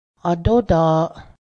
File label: bow, Cayuga; adóda:ˀ; bow (weapon)